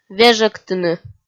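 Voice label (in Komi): "вежӧгтыны", Komi, female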